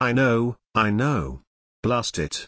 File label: Male Gnome; Scabbs